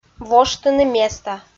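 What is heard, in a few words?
"воштыны места", Komi, female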